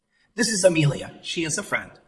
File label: Voice Acting, es